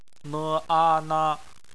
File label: "na", Chinese; bpmf